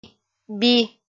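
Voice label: "би", Komi-Zyrian, female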